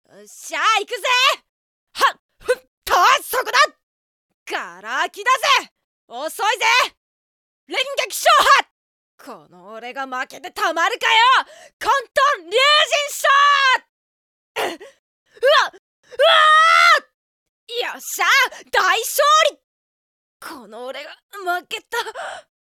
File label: boy battle